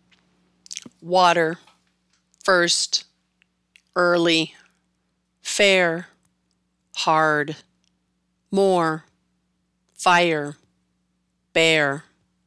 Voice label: American; American English